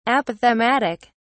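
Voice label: "apophthegmatic", British English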